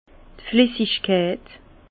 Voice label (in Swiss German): "flessigkeit", Bas Rhin; fluidité; vin